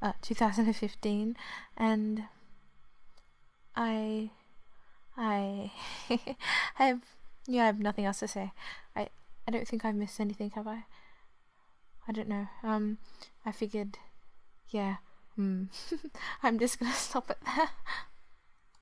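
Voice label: verification, female; GWA verification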